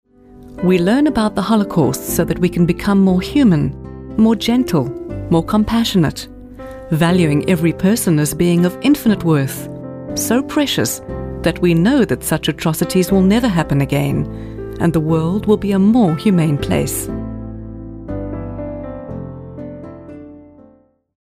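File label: english South African female commercial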